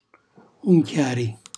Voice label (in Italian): "unchiari", Calabrese; cucinacalabrese